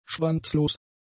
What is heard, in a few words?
"schwanzlos", German; adjectives